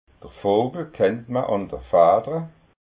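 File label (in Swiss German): "vogel", Alsatian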